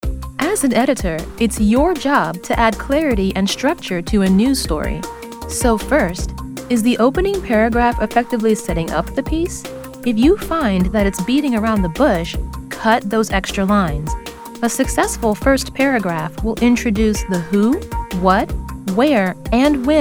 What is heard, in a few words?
Narration